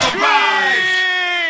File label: aprizeyeh, Voice gibbrish